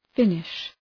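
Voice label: "finish", English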